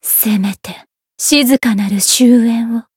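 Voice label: lancer variable chant, Japanese; violet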